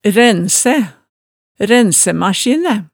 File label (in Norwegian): "rænsæ", Hemsedal